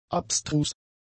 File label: "abstrus", german; adjectives